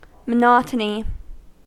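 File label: "monotony", US English; pronunciations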